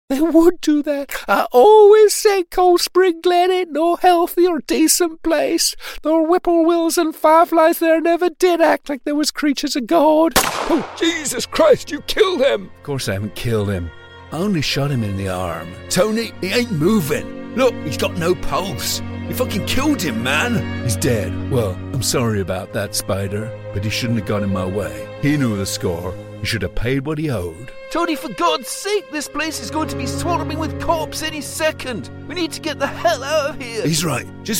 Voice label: character